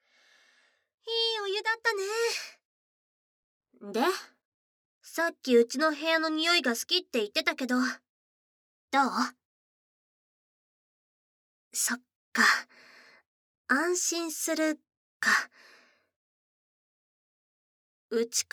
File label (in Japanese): 会話 耳かき導入; 秋穂編; 秋穂の誘惑